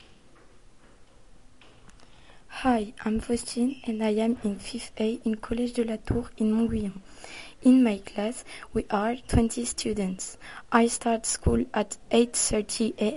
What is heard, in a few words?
english classroom